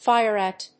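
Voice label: "fire at", English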